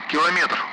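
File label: Speech Sound Russian Common Allied Flight; kilometer; DCS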